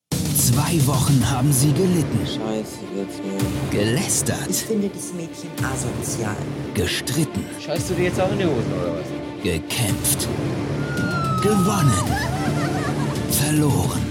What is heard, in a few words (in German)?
durchgehende Trailerstimme; SIXX; Big Brother Staffel 12